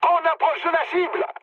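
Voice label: near waypoint, mood high, French; War Thunder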